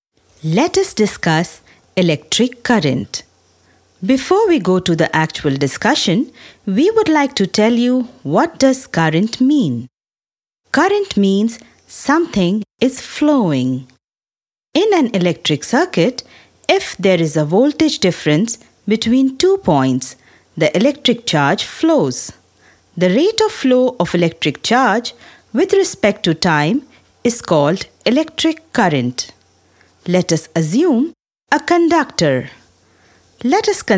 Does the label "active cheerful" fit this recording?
No